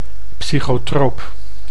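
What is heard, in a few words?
"psychotroop", Dutch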